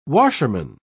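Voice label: "washerman", British English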